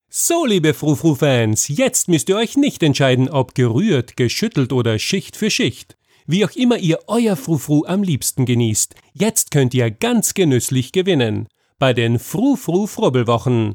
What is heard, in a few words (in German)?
Werbung